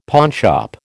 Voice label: "pawnshop", American